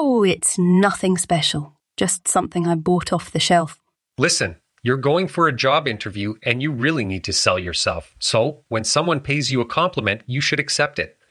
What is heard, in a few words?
Antique Shop Dialogue